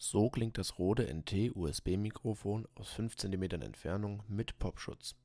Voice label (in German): Rode 5cm mit Popschutz